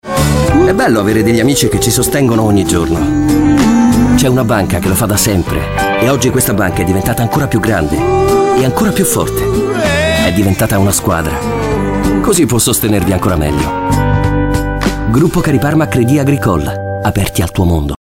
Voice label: spot; Cariparma

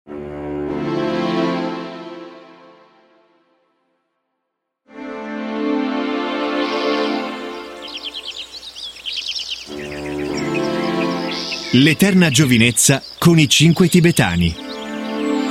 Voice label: Audiolibro; I 5 Tibetani

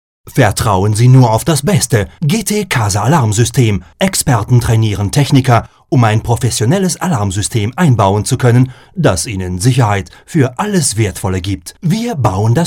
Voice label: Pubblicitario

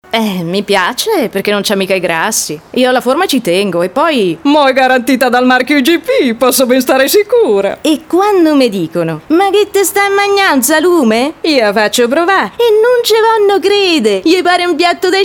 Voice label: DIALETTI

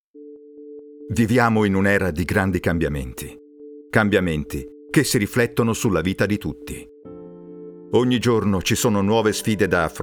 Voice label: corporate; servizi finanziari

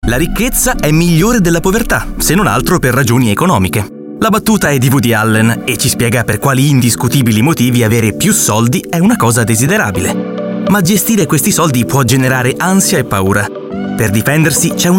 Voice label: istituzionale; fineco

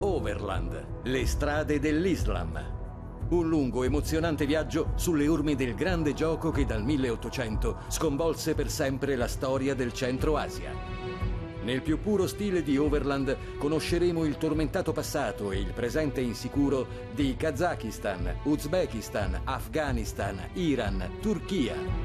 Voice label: Narratore Sigla iniziale; Overland 18

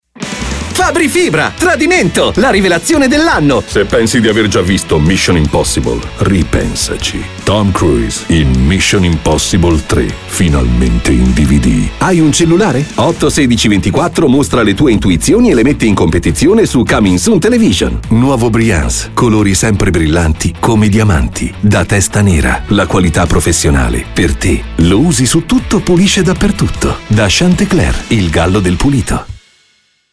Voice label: spot musica

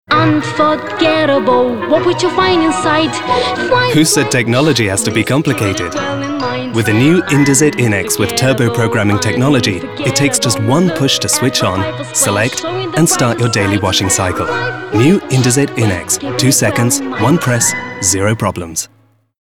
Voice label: voce caldo istituzionale; indesit innex

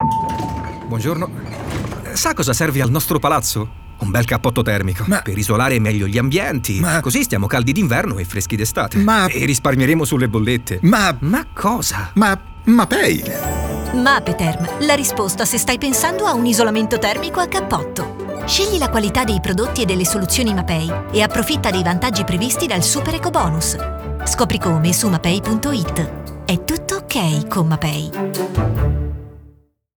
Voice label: istituzionale; mapetherm eco-bonus

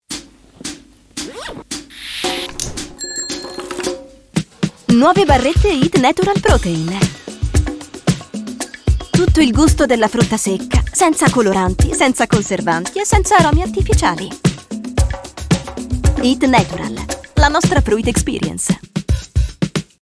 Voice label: Allegro, naturale, semplice; eat natural

